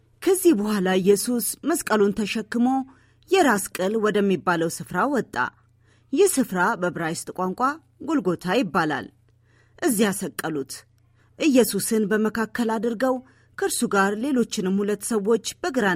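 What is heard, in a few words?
Amharic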